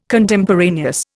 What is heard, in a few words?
"Contemporaneous", Indian